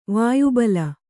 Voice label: "ವಾಯುಬಲ", Kannada; vāyu bala; noun; = ವಾಯುಪಡೆ.

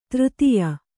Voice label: "ತೃತಿಯ", kannada

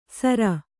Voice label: "ಸರ", Kannada

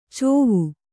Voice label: "ಚೋವು", kannada

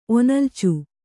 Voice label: "ಒನಲ್ಚು", Kannada

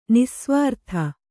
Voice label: "ನಿಃಸ್ವಾರ್ಥ", kannada

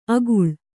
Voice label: "ಆಗುಳ", Kannada

